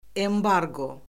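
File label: "embarqo", Azerbaijani; Orfoqrafiya Lüğəti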